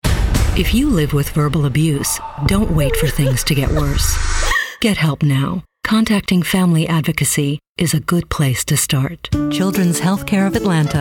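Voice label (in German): US English, Werbung, Variabel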